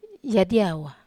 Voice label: "adiava", wamesa